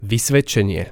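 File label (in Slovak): "vysvedcenie", Slovak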